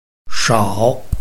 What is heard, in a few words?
"shao3", Chinese